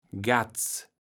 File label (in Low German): "Gatz", Platt